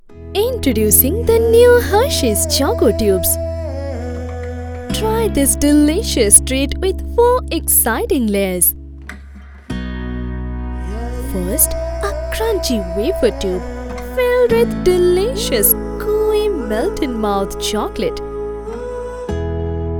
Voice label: Eng TVC